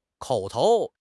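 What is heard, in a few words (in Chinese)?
"口头", Chinese; HSK6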